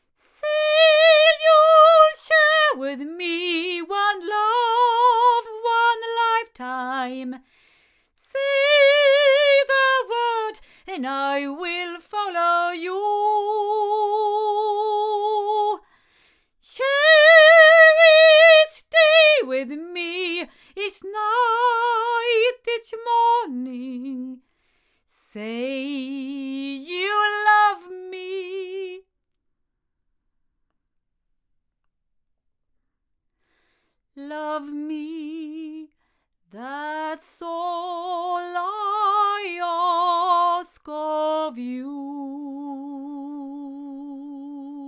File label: female; LineAudio SM6i; cardioid; microphones